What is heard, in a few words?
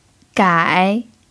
"gai3", Mandarin pinyin